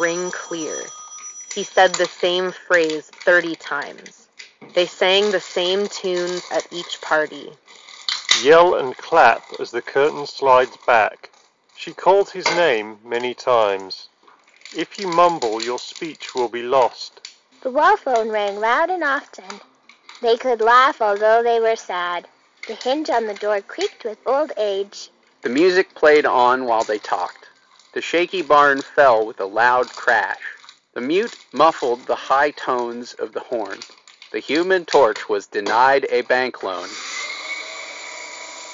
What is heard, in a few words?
Sennheiser Accentum Wireless Office microphone sample